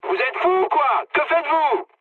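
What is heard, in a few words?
French player killed ally mood high; War Thunder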